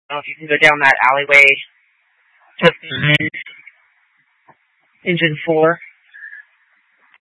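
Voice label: Incident Tactical, scanner audio recording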